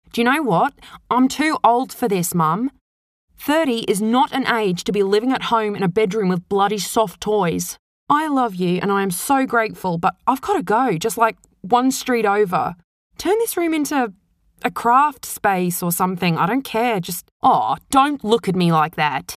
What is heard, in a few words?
Australian